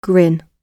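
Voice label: "grin", British English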